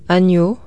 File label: French lamb; French agneau [aɲo] ‘lamb’